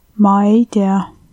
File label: i dont know, estonian